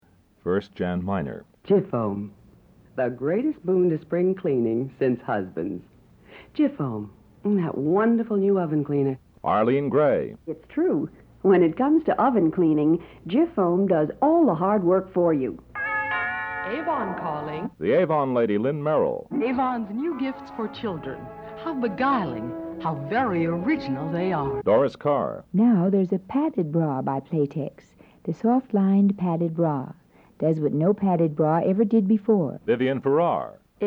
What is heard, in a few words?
Female Voices